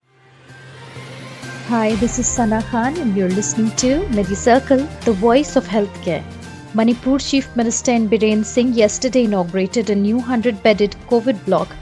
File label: english news